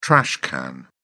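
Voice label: "trash can", British English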